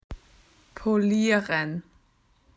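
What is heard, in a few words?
"to clean", Australian English; PictureDictionary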